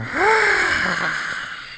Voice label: Relieved; Sera's husband